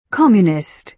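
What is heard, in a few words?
"communist", British English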